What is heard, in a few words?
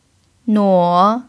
"nuo3", mandarin pinyin